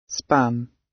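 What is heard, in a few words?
"span", British English; Time, history; Thời gian, lịch sử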